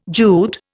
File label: "Jute", Indian